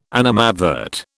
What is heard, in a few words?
"animadvert", British